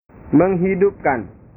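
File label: "menghidupkan", Indonesian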